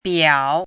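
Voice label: "biao" third tone, pinyin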